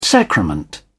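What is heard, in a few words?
"sacrament", English, British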